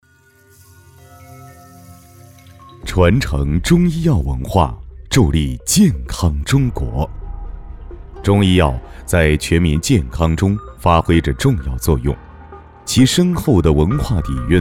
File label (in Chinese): 男 宣传片; 中医药